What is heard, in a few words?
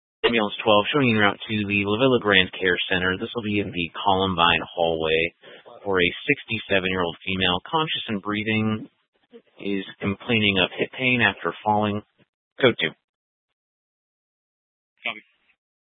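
Fire Dispatch, scanner audio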